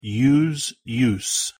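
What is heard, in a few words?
pronunciation "use", English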